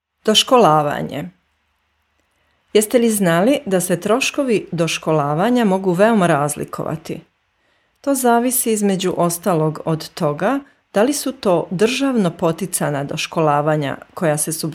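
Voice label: Serbian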